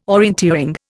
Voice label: "orienteering", Indian